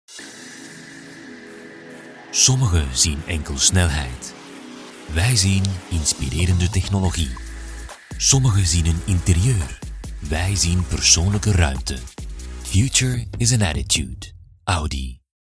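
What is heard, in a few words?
Dutch, Corporate, Flemish; GoLocalise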